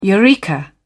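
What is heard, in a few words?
"eureka", British English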